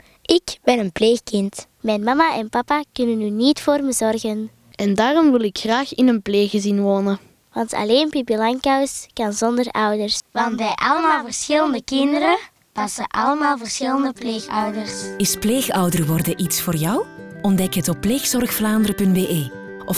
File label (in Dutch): radiospot; 3-4 leerjaar; Pipi Langkous